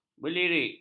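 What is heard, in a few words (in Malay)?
"berlirik", Malay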